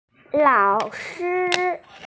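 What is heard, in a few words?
"laoshi", Mandarin Chinese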